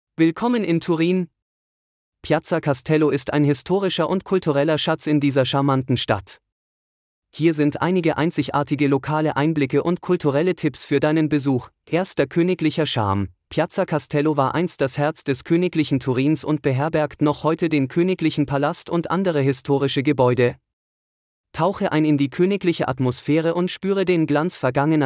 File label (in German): local insights de; Piazza Castello ist einer der bekanntesten Plätze in Turin